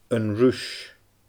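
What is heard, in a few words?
"An Ruis", Scottish Gaelic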